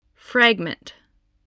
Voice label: "fragment", English voice, spelling

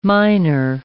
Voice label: "minor", English

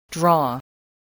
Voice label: "draw", spelling

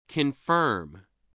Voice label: "confirm", english

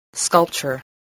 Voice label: "sculpture", english spelling

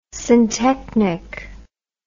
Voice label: "syntechnic", English word

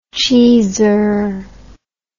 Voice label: "cheezer", English

